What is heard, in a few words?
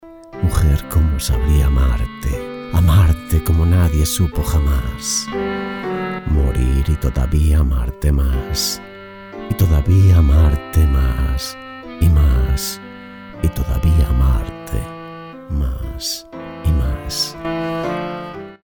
locutor spanish voiceover